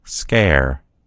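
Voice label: "scare", American English; gcompris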